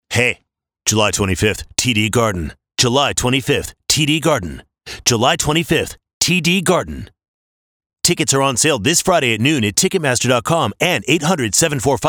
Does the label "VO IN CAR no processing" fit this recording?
Yes